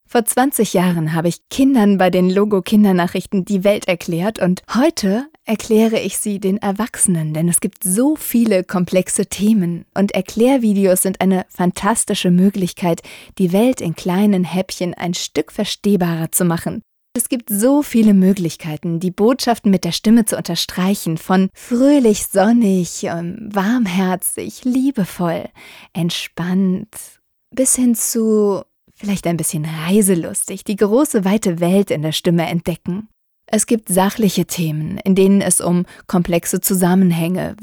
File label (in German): erklaerfilm